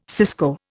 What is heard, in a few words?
"cisco", Indian